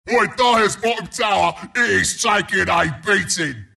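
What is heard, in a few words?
announcer tower attack dire bottom; Dota 2; bristleback announcer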